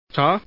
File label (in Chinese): "chi", man; mahjong paohuzi; youxian